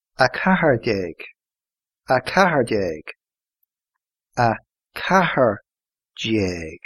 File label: fourteen irish